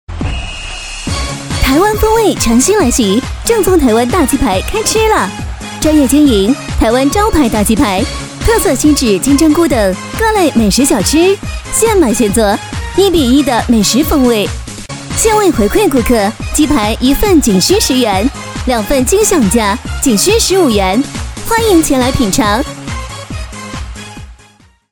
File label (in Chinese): 促销大鸡排